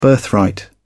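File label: "birthright", British English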